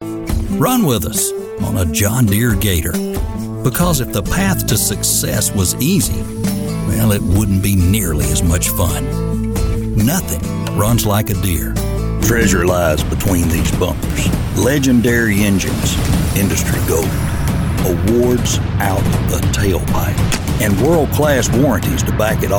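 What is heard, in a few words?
American English, Commercial, Southern; GoLocalise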